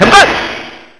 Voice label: "hold it", Korean; Phoenix